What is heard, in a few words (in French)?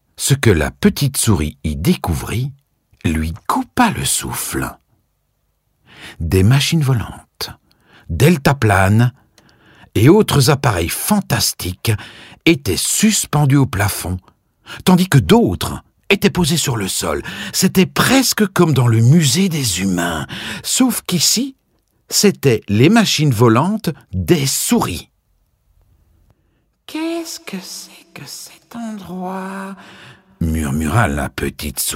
Livre audio CONTE ENFANT; hoerbuch; ARMSTRONG